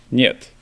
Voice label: "nyet", russian